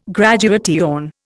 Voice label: "graduation", Indian

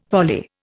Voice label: "volley", Indian

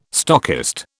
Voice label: "stockist", British

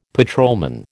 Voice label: "patrolman", American

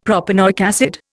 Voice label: "propanoic acid", Indian; Dictionary

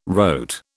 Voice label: "rote", British

